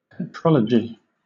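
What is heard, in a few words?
"petrology", British English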